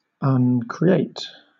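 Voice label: "uncreate", British English; pronunciations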